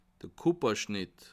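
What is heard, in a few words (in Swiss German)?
"kupferstich", Bas Rhin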